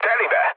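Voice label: Hungarian bomb success mood low, speech; War Thunder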